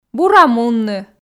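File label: "бура мунны", Komi, female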